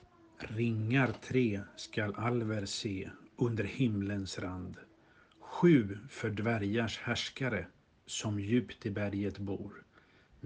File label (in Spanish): Poema anillo Sueco